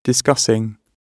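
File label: "discussing", British English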